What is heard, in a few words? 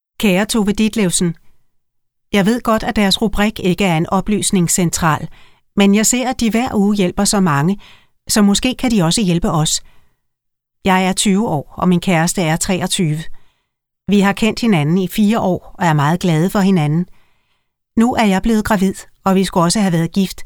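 Danish, Audiobook, female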